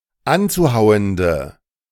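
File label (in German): "anzuhauende", German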